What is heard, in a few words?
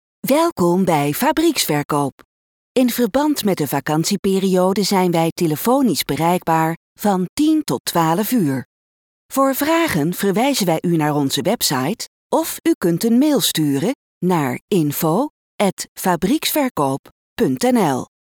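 Dutch female ivr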